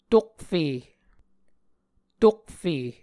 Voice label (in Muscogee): "tokfe", Muscogee